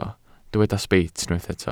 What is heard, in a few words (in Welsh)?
"sbeit", Welsh